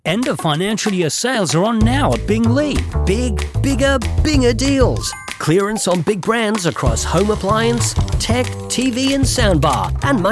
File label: Variety, Male Voice Over; Professional; Sydney-based voice actor; Born in the UK and moving to Australia as a teenager